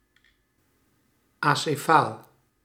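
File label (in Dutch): "acefaal", Dutch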